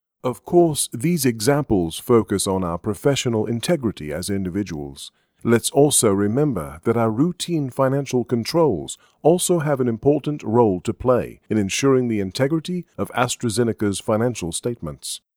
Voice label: BRITISH MALE; Astra Zeneca

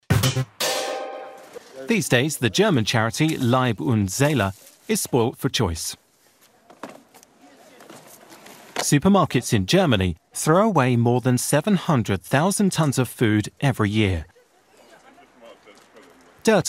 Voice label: Television Narration

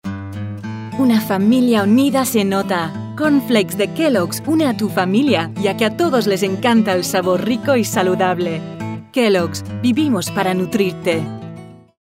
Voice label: Spanish; Kellogs

